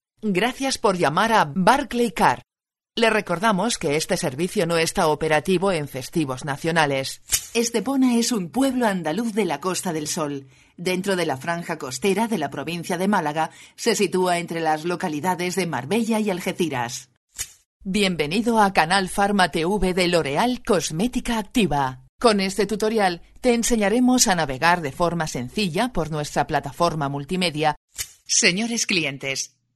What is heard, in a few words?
Female Spanish Euro